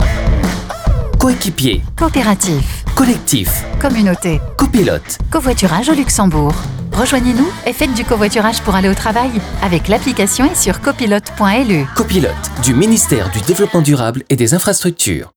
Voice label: spot radio fr; copilote